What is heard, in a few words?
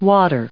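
"water", US English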